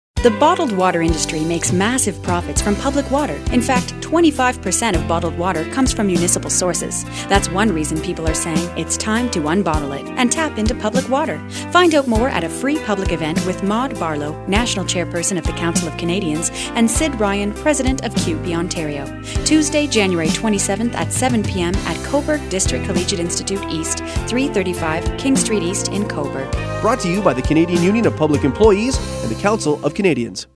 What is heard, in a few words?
female; cobourg